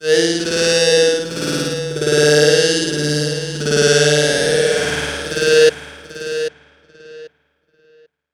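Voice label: effects talk, Funky Vocals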